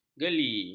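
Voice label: "geli", Malay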